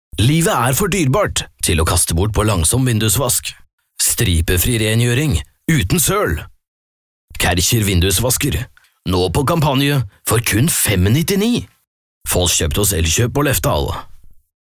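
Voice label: Norwegian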